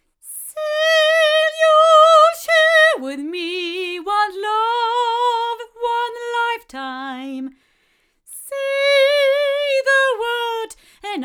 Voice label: Golden Age FC3 cardioid female

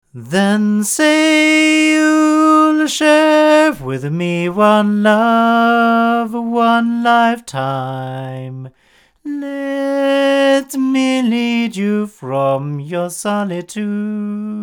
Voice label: male, microphones recordings

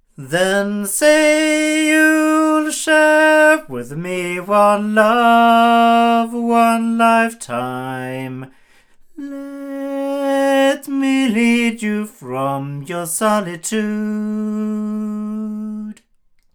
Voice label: sE Z5600a II omni male, microphones; se electronics

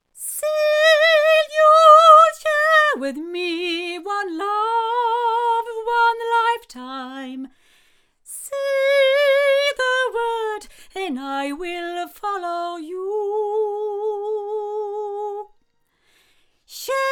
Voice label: female, microphones; Lauten; Horizon

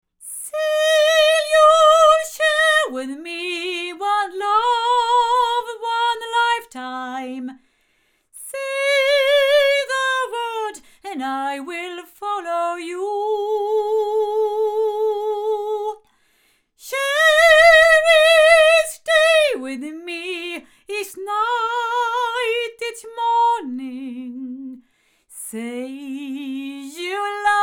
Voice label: Golden Age TC1 omni female

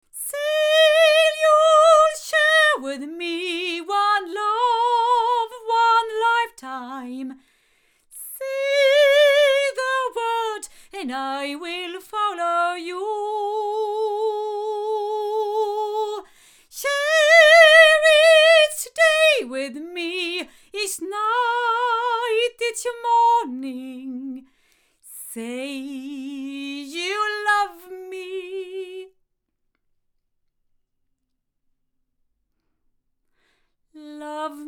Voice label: cardioid female; se electronics

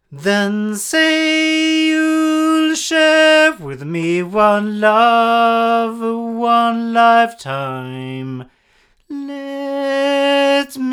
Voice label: Sontronics Orpheus 8 male, microphones recordings

